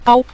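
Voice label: "gao", Mandarin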